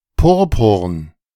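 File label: "purpurn", German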